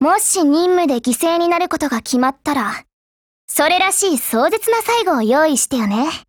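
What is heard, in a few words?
DIALOGUE, Japanese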